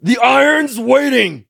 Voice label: touch, en; Cookie Run